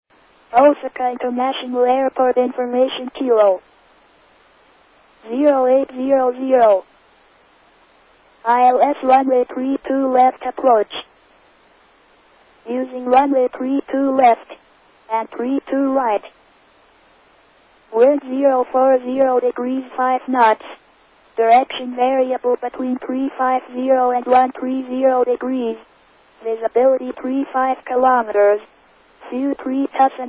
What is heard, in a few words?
ATIS, Japan; osaka; Itami